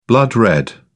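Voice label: "blood-red", British English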